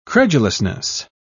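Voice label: "credulousness", British English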